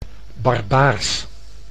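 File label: "barbaars", Dutch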